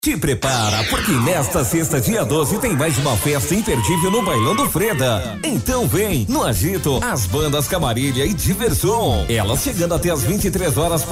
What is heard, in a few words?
vox locutor; studio elshaday